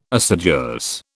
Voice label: "assiduous", British